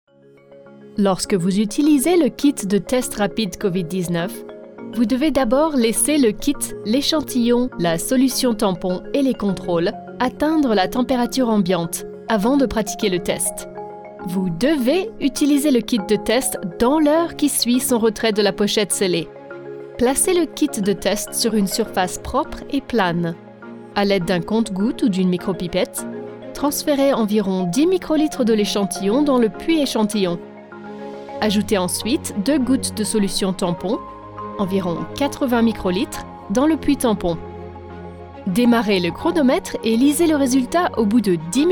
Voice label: French; Covid-19 test kit